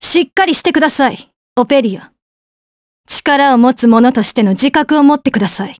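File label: voice, Japanese; Isolet; kingsraid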